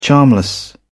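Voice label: "charmless", British English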